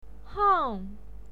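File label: "hang", Mandarin Chinese pinyin, fourth tone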